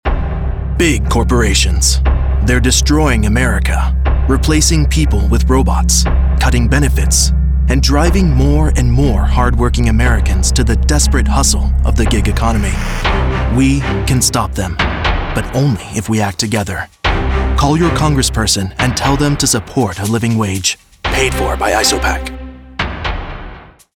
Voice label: Political Issue Ad Fear